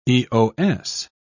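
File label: "eos", English, British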